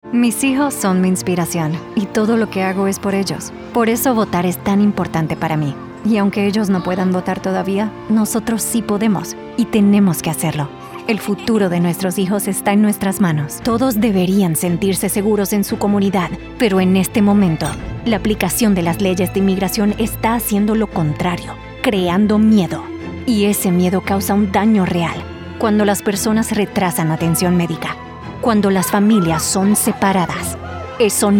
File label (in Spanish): Political Spanish Democratic